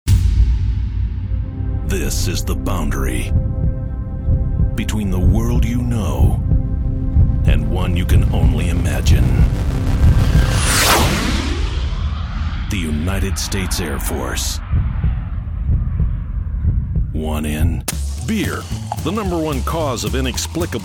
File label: English, english males